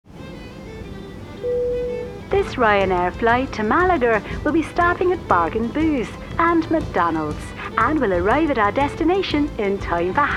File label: IRISH